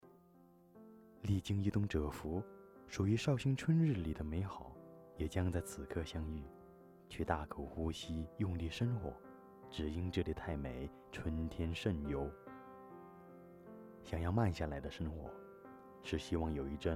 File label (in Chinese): 宣传片配音